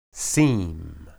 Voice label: "sim", Hebrew